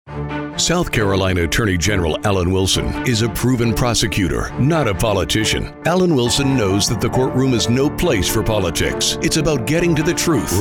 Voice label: Political Campaigns Male